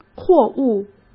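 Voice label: "货物", Mandarin Chinese; hsk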